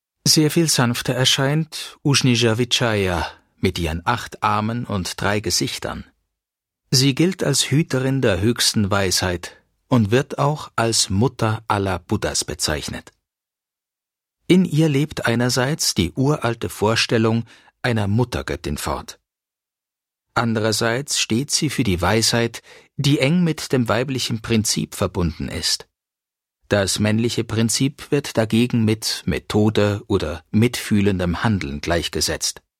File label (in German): dokumentation museum tourtext buddhismus usnisa vijaya; museum rietberg